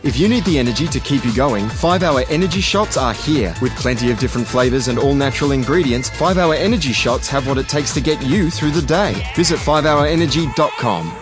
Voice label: energetic inspirin

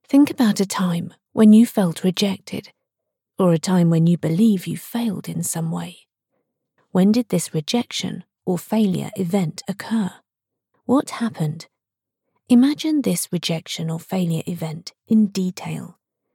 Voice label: English Voice Over

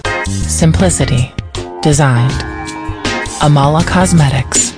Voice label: English Voice Over

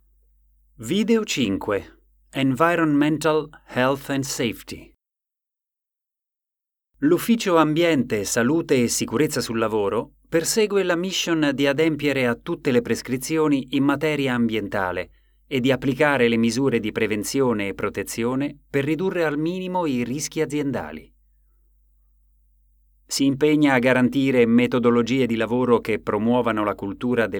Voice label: italian voiceover training

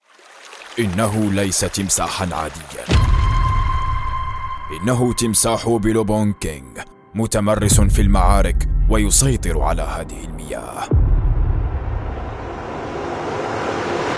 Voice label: Arabic Voiceover

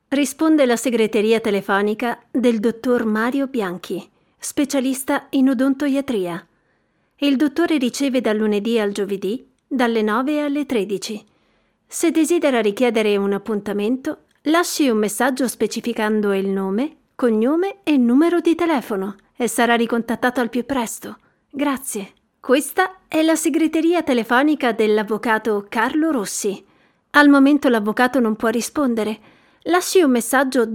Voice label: Italian Voiceover hot friend

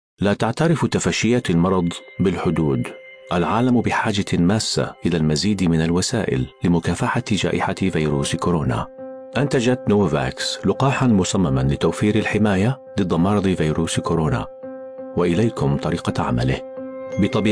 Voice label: Arabic Voice Over

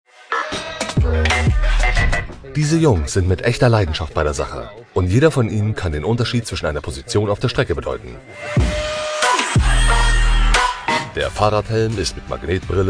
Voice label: German Voice Over Various Ads

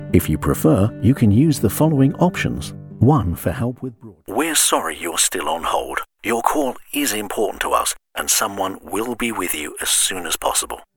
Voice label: informativ

